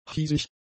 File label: "hiesig", German; adjectives